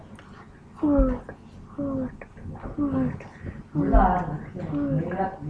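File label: "hold", spoken word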